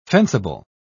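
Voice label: "fencible", English, British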